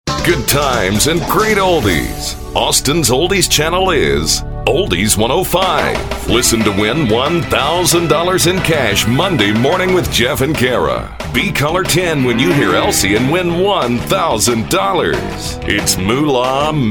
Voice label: Imaging, male voice talent